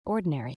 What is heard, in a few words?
"ordinary" us female; everyone-can-use-english